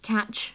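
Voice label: "catch", British English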